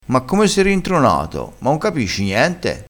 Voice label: "rintronato", crespinese, vocabolario dialettale

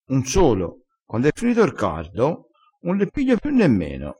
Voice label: "piu nemmeno", crespinese

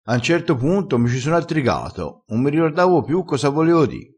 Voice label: "attrigassi", crespinese

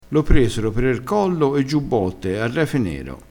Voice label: "a refe nero", Crespinese

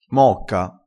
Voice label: "mocca", crespinese

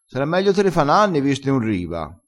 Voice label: "sie", vocabolario dialettale

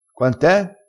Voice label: "strasciino", crespinese

